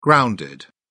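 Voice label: "grounded", British English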